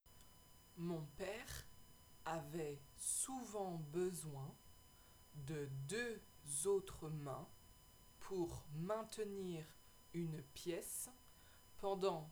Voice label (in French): dictee